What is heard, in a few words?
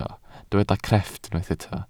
"crefft", welsh